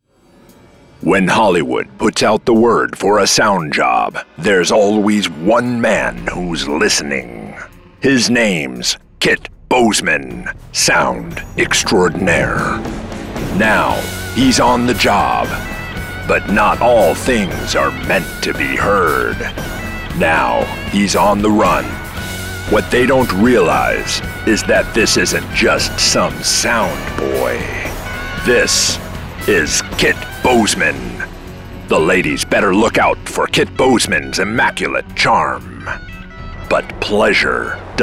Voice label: Voice Actor Voiceover Voice Over Grindhouse 60s 70s Themed Movie Trailer Period Adult Male